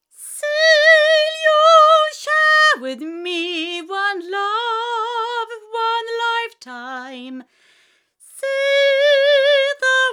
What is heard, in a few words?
Shure Beta58A female